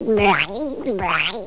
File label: gibberish